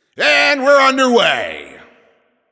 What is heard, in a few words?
Game Start, Announcer